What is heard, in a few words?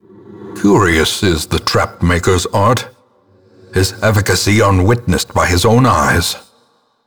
Narration trap; darkestdungeon